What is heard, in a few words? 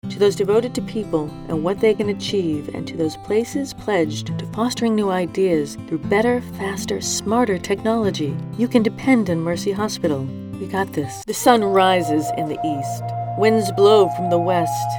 Voice demo, voiceover